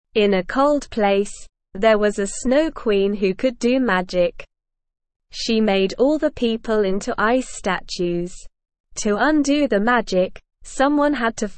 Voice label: ESL Short Stories for Kids Beginner SLOW Reading; The Snow Queens Spell